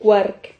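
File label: "quark", Spanish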